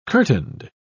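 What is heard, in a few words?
"curtained", British English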